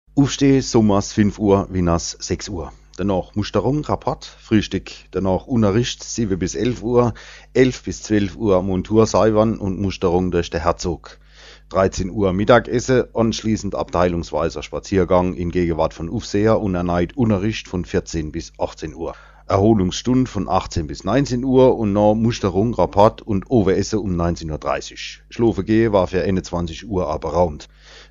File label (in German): Dialekt Pfaelzerisch